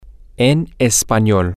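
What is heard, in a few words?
in language, spanish, male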